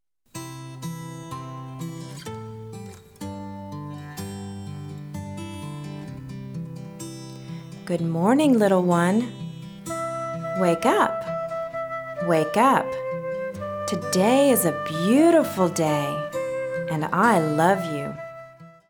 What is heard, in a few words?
English